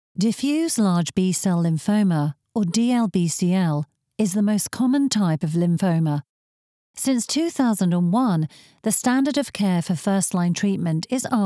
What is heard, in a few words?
Medical, en-GB